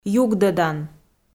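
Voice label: "югдӧдан", Komi, female; kpv audio dict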